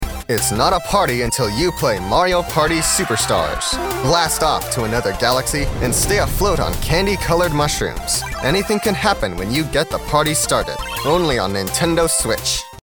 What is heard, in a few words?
Commercial Video Game